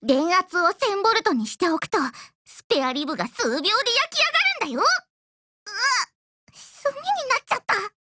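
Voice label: dialogue Japanese; max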